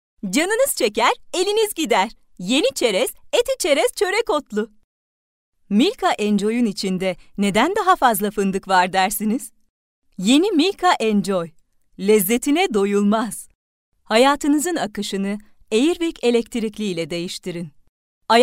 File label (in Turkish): GENC KIZ